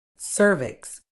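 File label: "cervix", British English; ˈsɜː.vɪks; SUR-vicks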